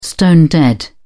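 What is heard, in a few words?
"stone-dead", English, British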